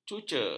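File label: "cuca", Malay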